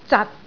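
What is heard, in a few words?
"zat6", Cantonese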